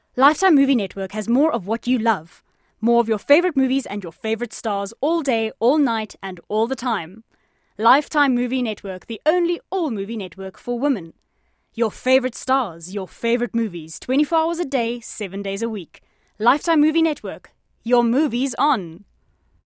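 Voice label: South African English, Accented English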